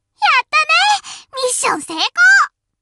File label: im with you, voice commands Anime Loli; svencoop